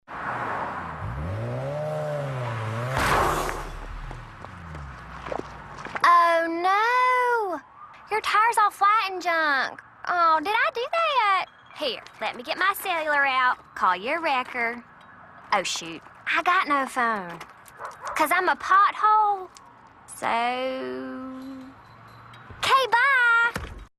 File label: Commercial; Pothole